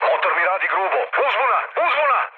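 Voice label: Serbian damaged engine mood low, speech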